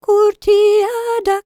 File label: WELSH F, World Voices